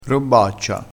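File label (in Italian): "robbaccia", vocabolario dialettale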